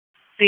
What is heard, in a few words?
WSO DISP 3, P25 recordings; wake